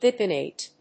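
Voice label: "bipinnate", English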